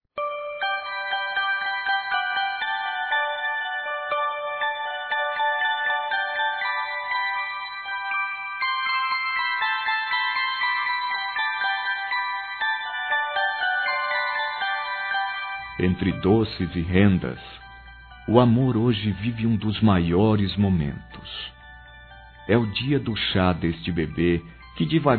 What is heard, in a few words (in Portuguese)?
PARABÉNS CHA DE BEBE, Telemensagens, Maternidade, masc